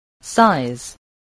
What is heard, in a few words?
"size", voice, spelling